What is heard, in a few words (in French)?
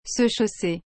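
"se chausser", French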